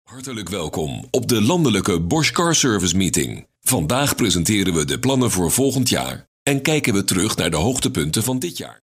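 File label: Dutch, male, IVR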